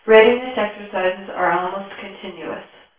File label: female